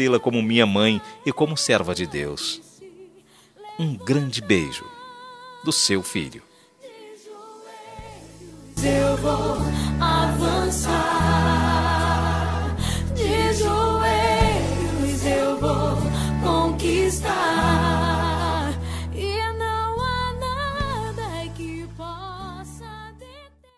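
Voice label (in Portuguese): Aniversário Evangélico para Mãe Masculino, telemensagem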